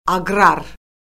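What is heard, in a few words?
"aqrar", Azerbaijani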